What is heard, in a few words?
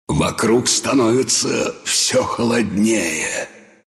death, ru; dota2